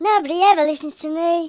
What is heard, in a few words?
leave me alone, speech, Hippy